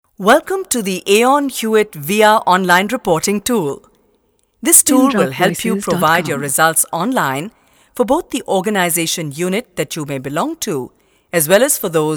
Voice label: English

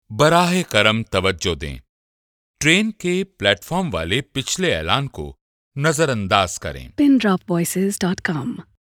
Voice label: Urdu

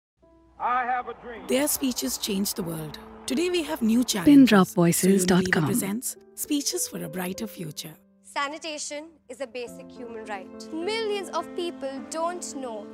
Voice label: English

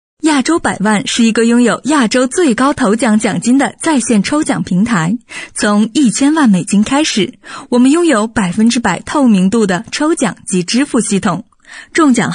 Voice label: Chinese Cantonese

